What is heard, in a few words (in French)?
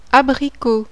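"abricot", French; FRUIT; plante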